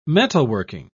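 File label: "metalworking", English, British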